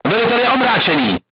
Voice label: voice message commander contused, crew tanks czech